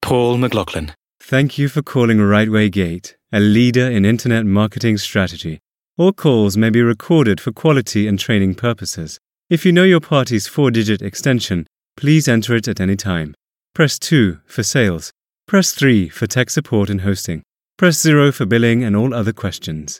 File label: British IVR